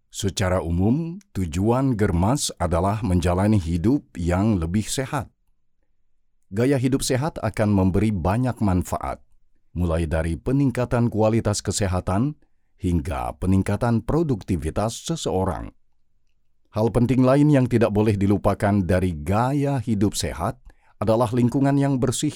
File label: Indonesian narration